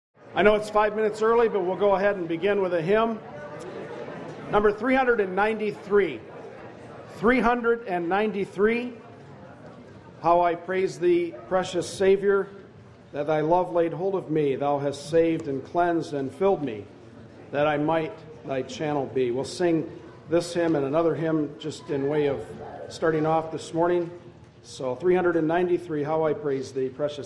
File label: announce hymn; 2015Conference; Hymn 393